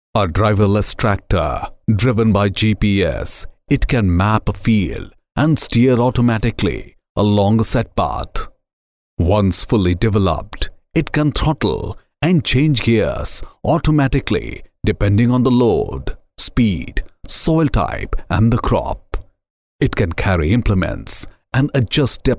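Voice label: corporate english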